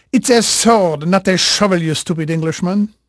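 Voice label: French male, voice; Pirates Of The Caribbean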